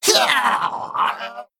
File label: Male Gnome Death